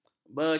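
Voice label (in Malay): "beca", Malay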